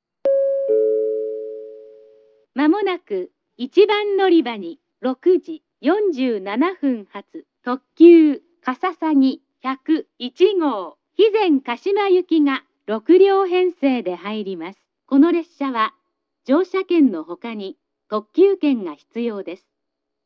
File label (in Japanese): announce; jrkyushu; hizenkashima